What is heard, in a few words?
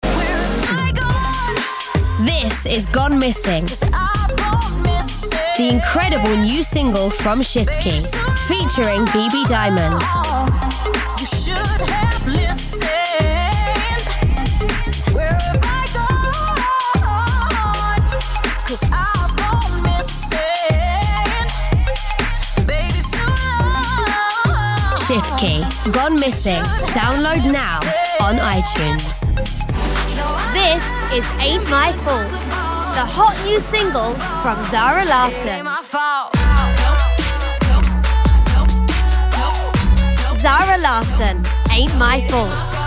Music Commercials